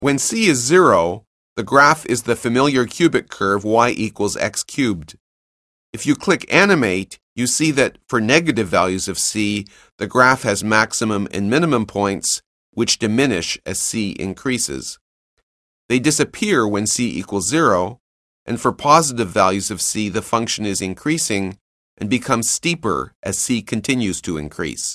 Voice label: narration; CALCULUS 7E; cengage